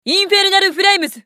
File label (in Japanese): ウォーロック女; インフェルナルフレイムズ